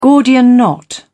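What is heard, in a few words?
"gordian knot", British English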